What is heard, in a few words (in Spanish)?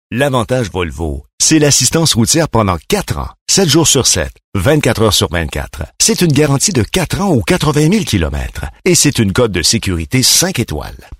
Masculino